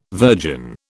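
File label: "virgin", British English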